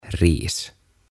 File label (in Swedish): "Ris" "Riisi"